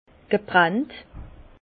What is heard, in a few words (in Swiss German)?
"gebrannt", Bas Rhin; vin; fumé